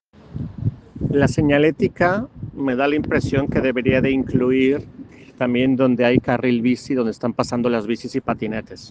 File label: Voice message